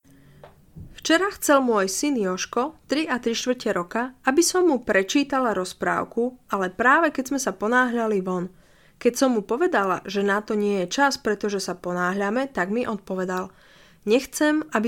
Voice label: Educational text from book about growing kids female voice louder